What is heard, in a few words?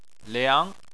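"liang" second tone, Chinese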